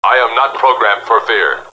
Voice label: not programmed for fear, robot; robot b9